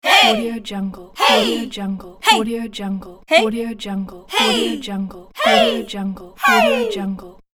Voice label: hey female vocals

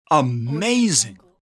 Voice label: man saying "amazing"

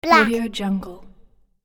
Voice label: "black", boy

